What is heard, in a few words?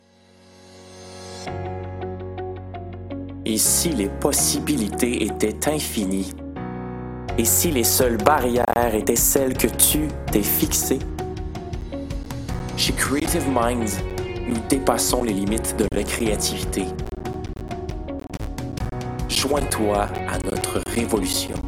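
Male, French Canada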